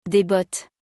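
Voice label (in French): "Des bottes", French; vocabulaire; le lexique de l'habillement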